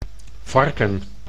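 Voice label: "varken", Dutch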